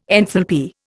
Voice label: "enthalpy", Indian